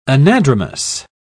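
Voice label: "anadromous", British English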